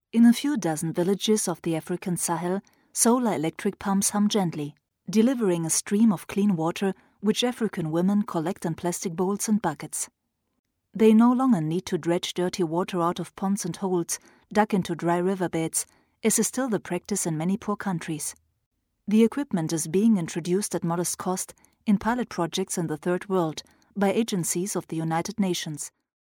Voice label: English

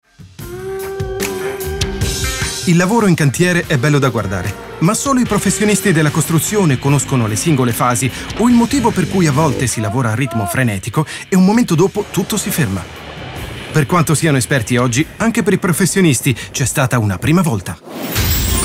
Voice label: dubbing; eroi della costruzione

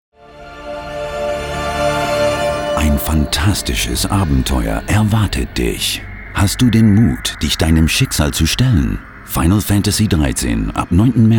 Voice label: german trailer; x-box

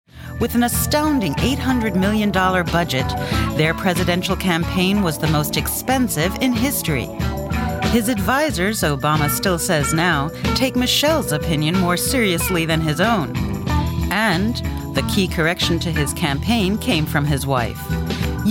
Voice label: docu

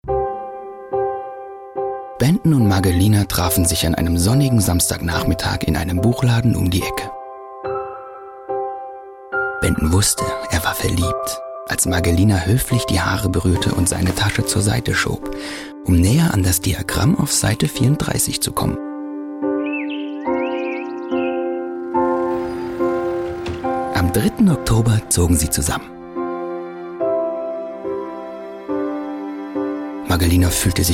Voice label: internet tv spot; wilkinson

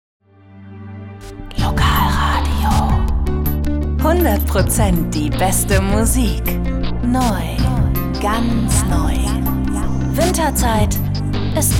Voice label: trailer

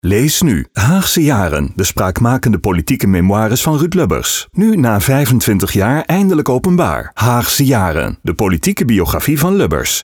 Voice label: nl medium sell book sales

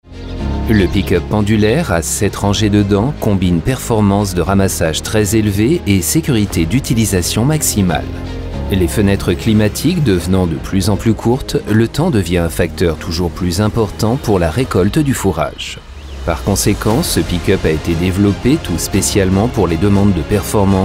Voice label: corporate video; poettinger

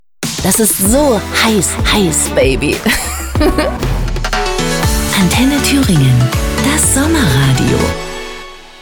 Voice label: station voice

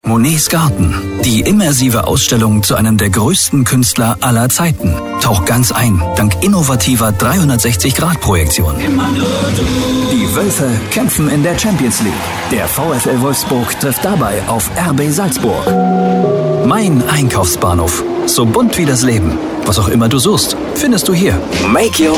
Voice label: sprecherdemo commercials; Sprecher in Leipzig; Muttersprache Deutsch

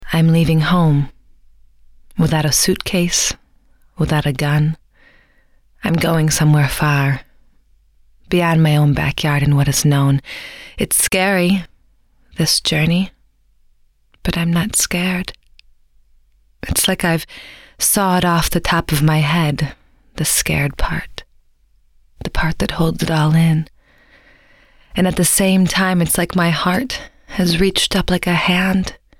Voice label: american

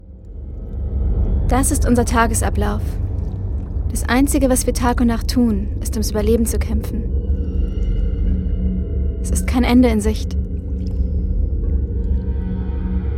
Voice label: videogame de; Muttersprache Deutsch; Sprecher in London, Köln

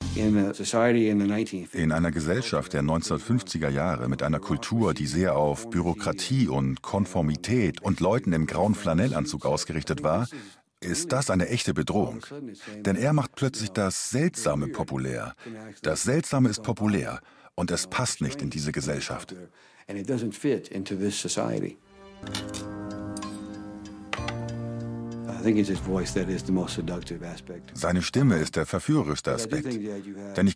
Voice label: voiceover doku; arte

